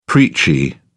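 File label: "preachy", British English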